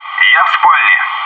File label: "bedroom", bot